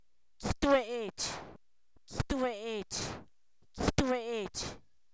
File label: chilacoyota, Zapoteco San Pablo Villa de Mitla; comestible